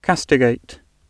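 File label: "castigate", English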